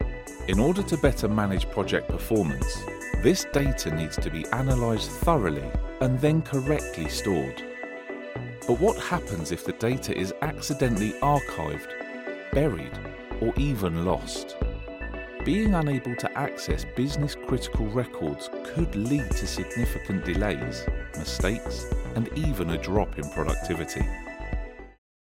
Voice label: Corporate